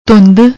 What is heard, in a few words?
"dhund", Punjabi; ਧੁੰਦ